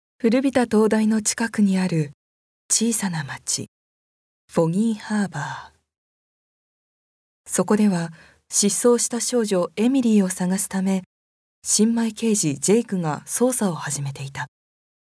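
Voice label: narration; 男性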